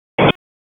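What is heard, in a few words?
Police Southeast District Dispatch, p25; Raleigh; wake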